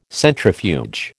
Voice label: "centrifuge", American